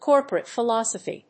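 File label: "corporate philosophy", English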